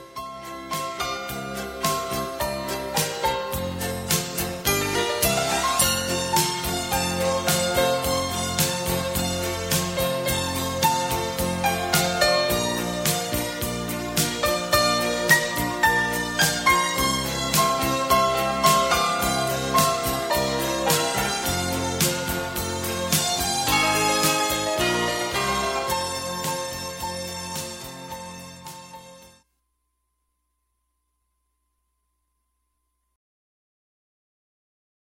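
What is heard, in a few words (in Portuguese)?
Feliz Natal e Ano Novo pra Mae, male, Infantil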